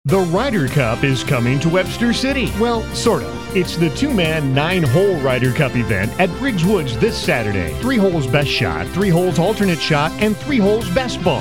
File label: Radio spot male